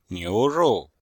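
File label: "niu2 rou4", Mandarin Chinese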